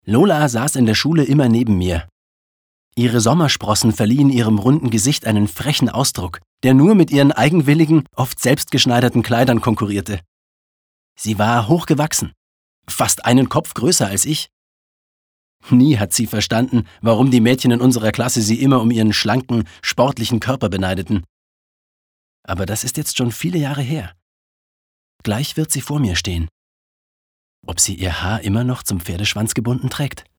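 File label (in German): Schauspiel Freundlich; Schultage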